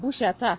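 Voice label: "bush attack", Nigerian Pidgin, female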